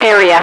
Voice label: "area", radio, female